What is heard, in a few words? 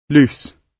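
"loose", British English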